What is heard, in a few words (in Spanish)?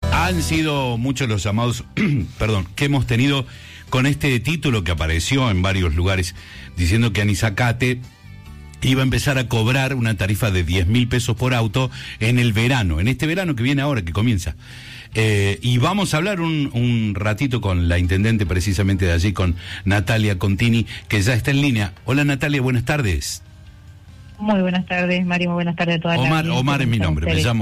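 Radio Estacionamiento Medido; Mitre Cordoba; Siesta Animal; Anisacate Localidad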